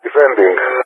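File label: "defending", English, GREECE; Messages; Allied Flight; DCS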